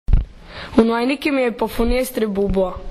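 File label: "unuajniki", Croatian dialect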